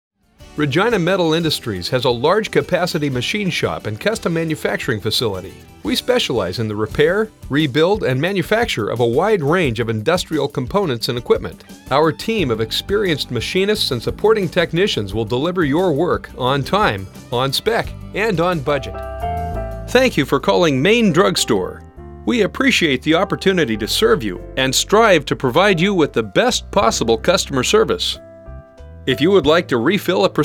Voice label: on hold IVR